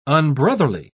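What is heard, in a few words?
"unbrotherly", British English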